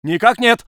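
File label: voice soviet infantry; Red Orchestra 41-45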